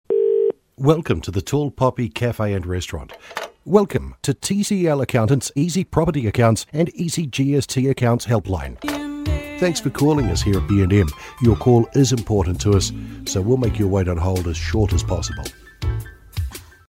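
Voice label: Telephone, male, English speaking, New Zealand Voice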